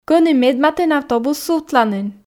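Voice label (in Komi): кӧні медматын автобус сувтланін, Komi, female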